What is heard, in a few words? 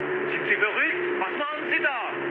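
German player killed ally mood high, speech; War Thunder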